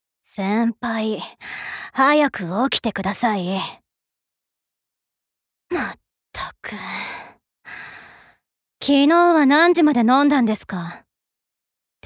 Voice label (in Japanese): アニメ